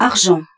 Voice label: French - Cash